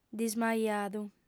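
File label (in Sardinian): "dismajadu", female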